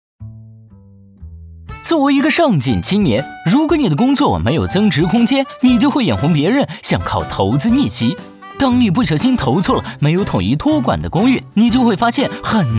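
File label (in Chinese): 病毒视频上进青年轻松诙谐; 一个上进青年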